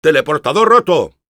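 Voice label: autodestroyed teleporter, Spanish; Engineer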